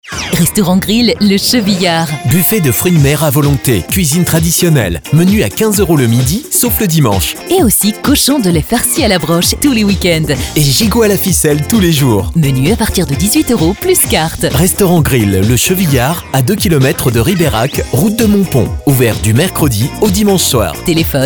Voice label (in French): Spot; Chevillard Studio Prod